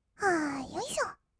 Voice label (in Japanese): "あーよいしょっ", kawaii voice; ASMR; 1200 kawaii voice!!; 音ゲー